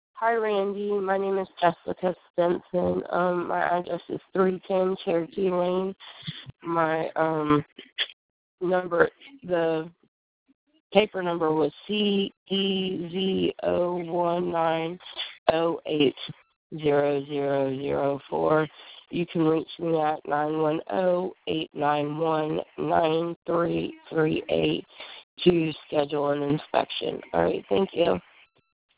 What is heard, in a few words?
Voice Message; Cherokee